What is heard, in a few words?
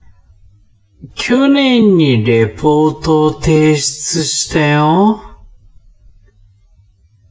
man